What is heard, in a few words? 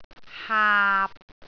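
"haap6", Cantonese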